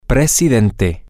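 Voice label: Spanish, male; president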